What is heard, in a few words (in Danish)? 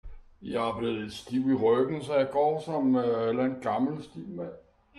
gammel stiv mand